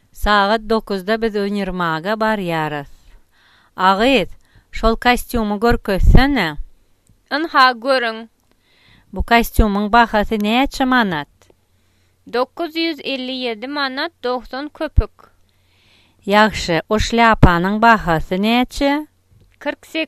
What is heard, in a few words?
dialogue store, turkmen